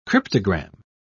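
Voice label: "cryptogam", British English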